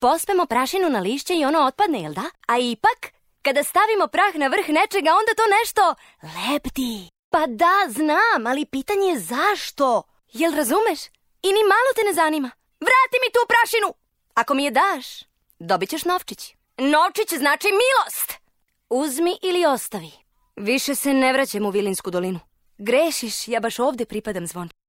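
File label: serbian female character voice; voice demos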